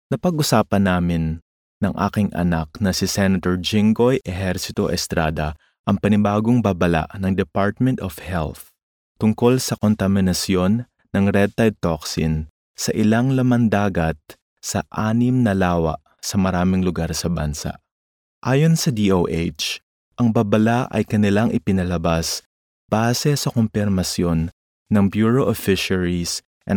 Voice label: Nachvertonung Film Sprecher Tagalog

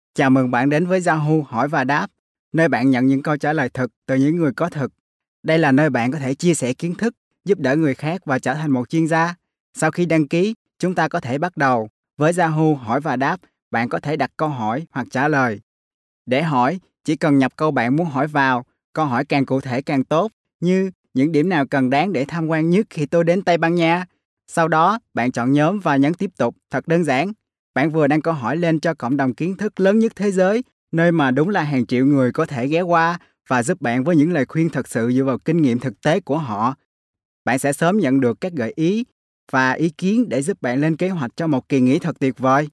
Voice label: Nachvertonung Film Sprecher Vietnamesisch

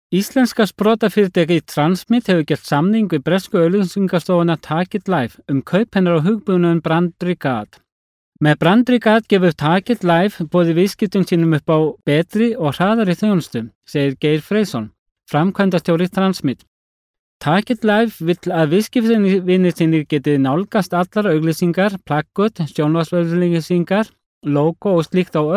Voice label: Nachvertonung Film Sprecher Isländisch

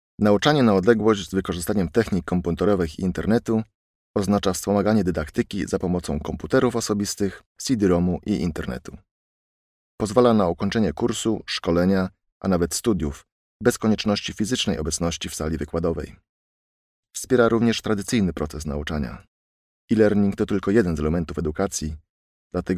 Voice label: Nachvertonung Film Sprecher Polnisch

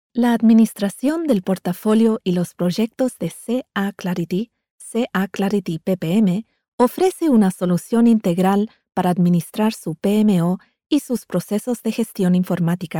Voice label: Nachvertonung Film Sprecherin Spanisch LA

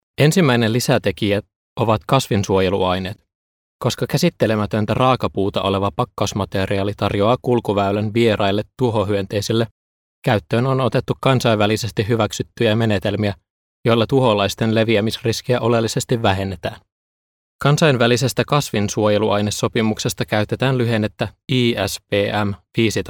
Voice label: Nachvertonung Film Sprecher Finnisch

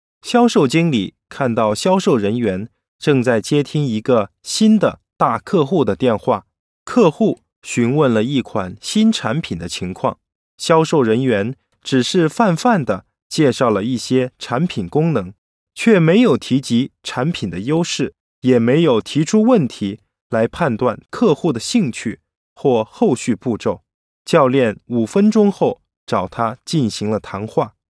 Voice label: Nachvertonung Film Sprecher Chinesisch

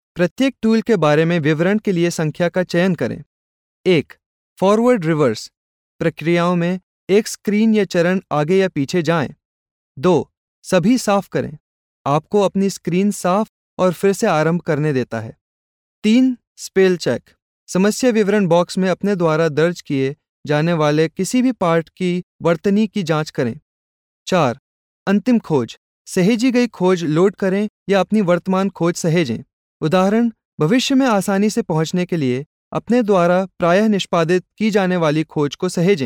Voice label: Nachvertonung Film Sprecher Hindi

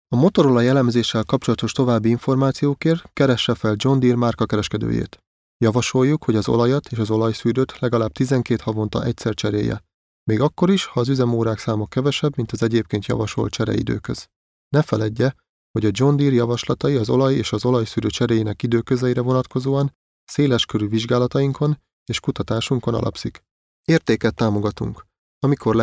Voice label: Sprecher Ungarisch; Nachvertonung Film

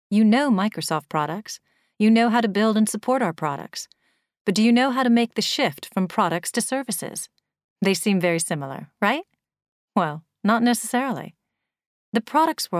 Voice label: Nachvertonung Film Sprecherin Englisch US

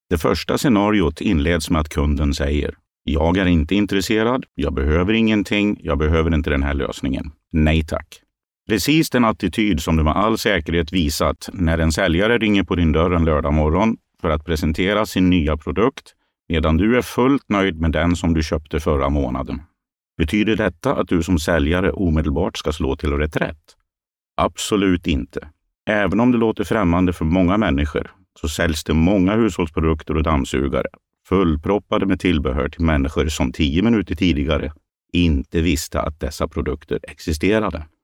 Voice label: Nachvertonung Film Sprecher Schwedisch

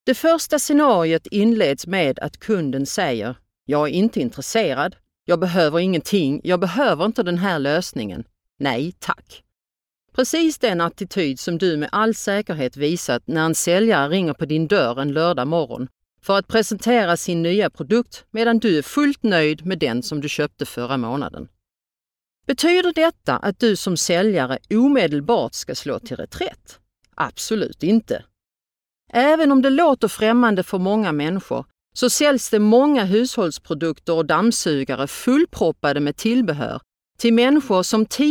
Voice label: Sprecherin Schwedisch; Nachvertonung Film

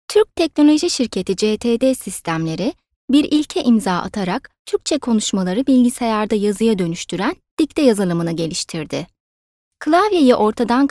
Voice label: Film Sprecherin Türkisch; Nachvertonung Film

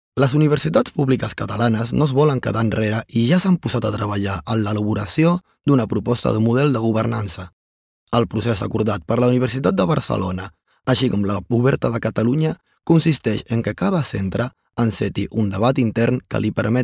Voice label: Nachvertonung Film Sprecher Katalanisch

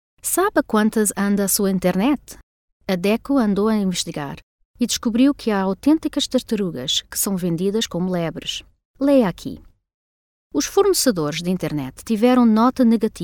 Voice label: Nachvertonung Film Sprecherin Portugiesisch

